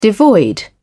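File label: "devoid", British English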